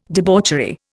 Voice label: "debauchery", Indian